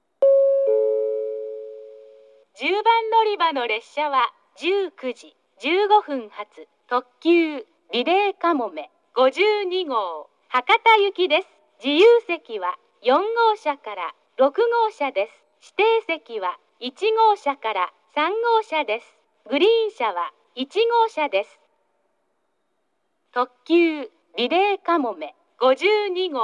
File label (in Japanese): 10番のりば停車中放送; リレーかもめ52号 博多行き; 江北通過